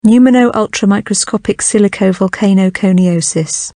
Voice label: pneumonoultramicroscopicsilicovolcanoconiosis pronunciation